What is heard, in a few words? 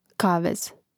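"kavez", Croatian; Mrežnik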